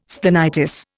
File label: "splenitis", Indian